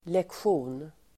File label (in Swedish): "lektion", swedish